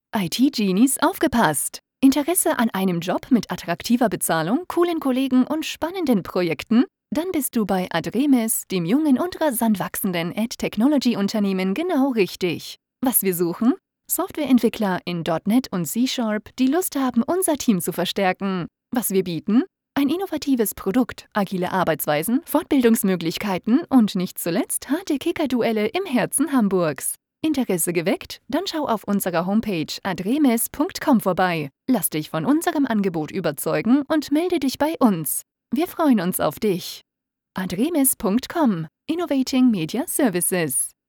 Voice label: Radio German; Adremes

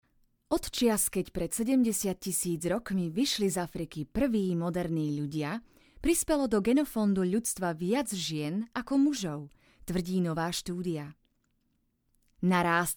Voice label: Narration

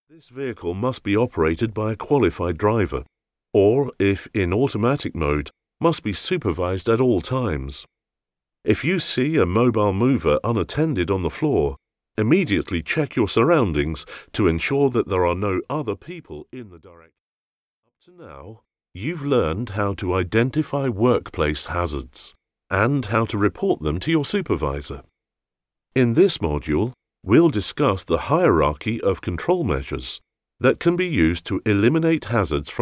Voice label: elearning voicereel

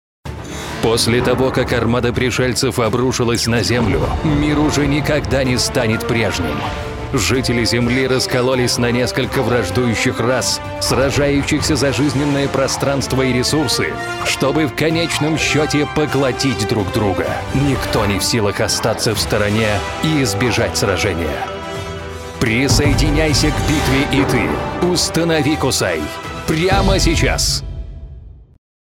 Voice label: movie trailers

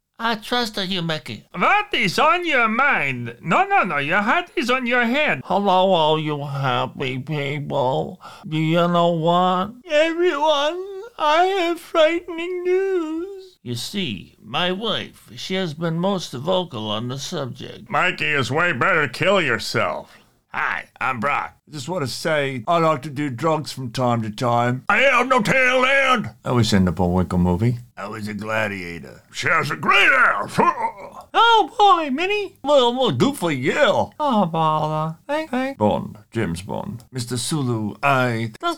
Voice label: random impressions

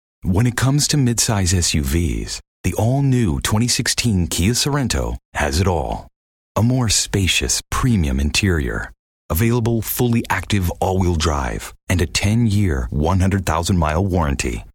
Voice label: Dry

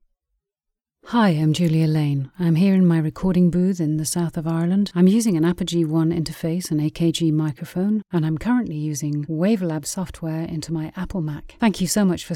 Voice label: studio sample

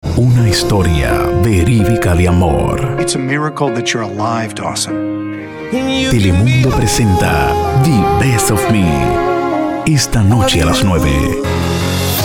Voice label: TV Promos

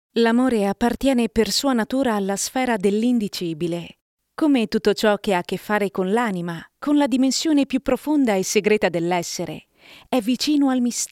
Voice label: Audiobook

